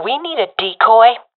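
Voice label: request decoy, professional female; vo; Counter Strike Model Pack; CS:GO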